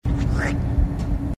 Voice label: spitting grunt; grunts